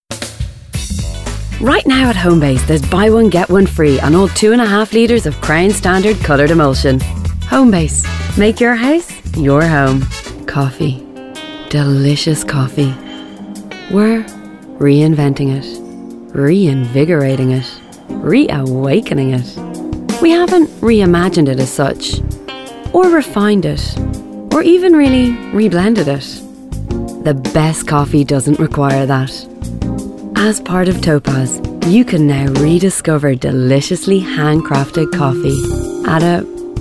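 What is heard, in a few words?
Irish female commercial; accent of ireland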